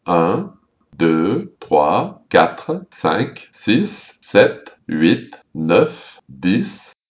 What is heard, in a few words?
french; numbers